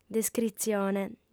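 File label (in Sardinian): "descritzione", female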